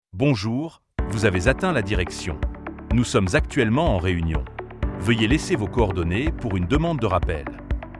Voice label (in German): business ansage